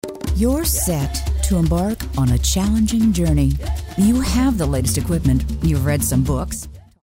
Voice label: upbeat